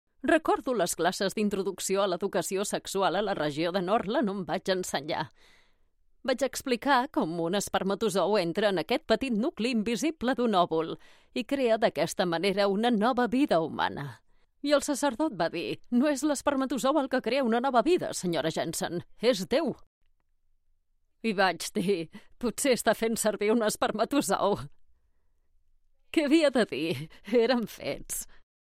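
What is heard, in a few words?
catalan impersonations, female; professional Spanish and Catalan voice actor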